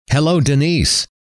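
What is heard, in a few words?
"hello", male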